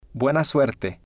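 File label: "buena Suerte", spanish, male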